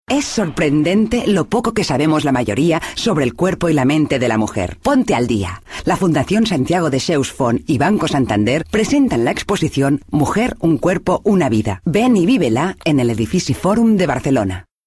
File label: female spanish event comercial; ideasonora